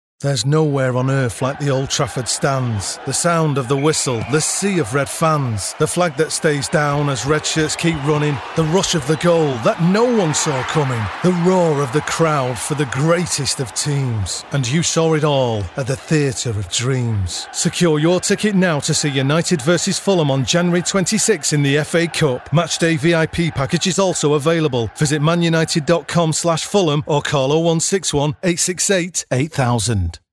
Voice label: poem; mufc